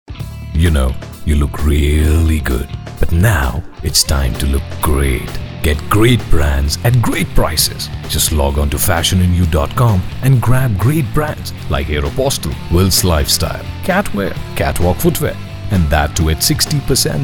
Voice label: promo eng